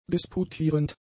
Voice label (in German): "disputierend", German; adjectives